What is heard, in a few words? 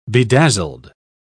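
"bedazzled", British English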